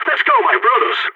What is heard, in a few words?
radio lets go, balkan; vo